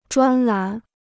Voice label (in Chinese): "专栏", Chinese